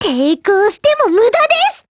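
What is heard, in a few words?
ATTACK, Japanese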